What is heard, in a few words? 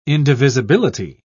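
"indivisibility", British English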